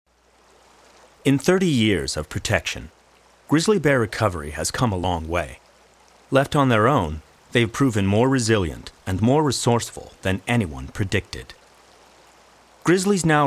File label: voiceover narration